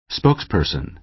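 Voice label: "spokesperson", American English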